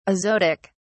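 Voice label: "azotic", British English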